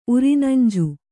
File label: "ಉರಿನಂಜು", Kannada